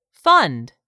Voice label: "fund", English; 3000 words